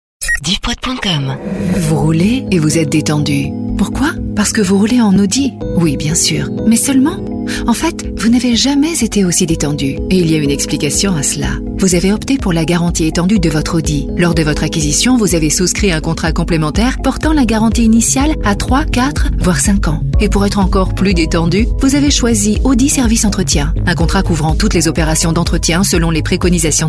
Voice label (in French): Demo VO Voix F